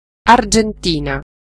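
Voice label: "argentina", Italian; gcompris-data; geography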